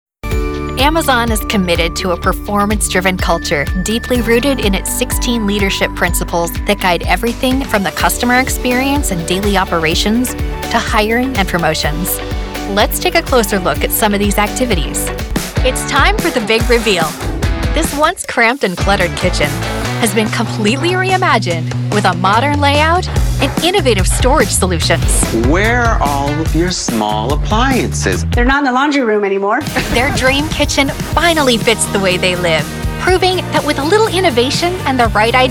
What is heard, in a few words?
Variety Narration, Female Narrators